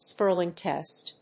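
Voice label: "Spurling test", English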